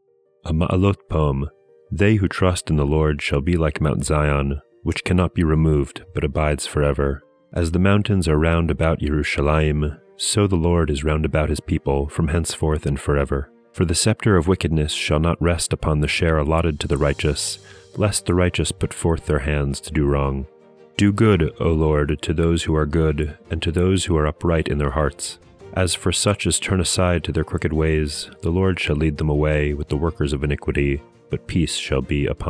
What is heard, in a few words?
poetry and prayer psalm english